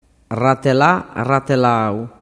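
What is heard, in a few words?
"ratelalau", Sicilian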